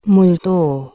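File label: "mlh2to", Proto-Indo-European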